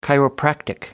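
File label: "chiropractic", English, British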